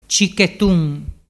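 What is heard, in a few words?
"cichetun", Ligurian dialect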